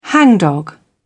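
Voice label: "hangdog", British English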